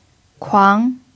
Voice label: "kuang2", chinese pinyin